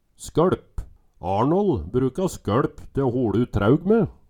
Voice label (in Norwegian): "sko Lp", Numedalsmål